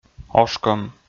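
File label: "ошкӧм", Komi, male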